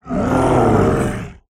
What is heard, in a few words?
BOSS Hurt